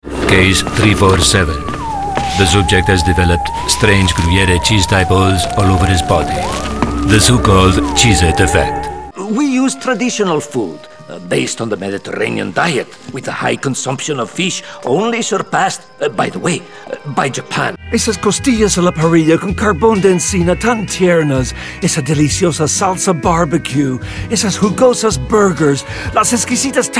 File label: Accents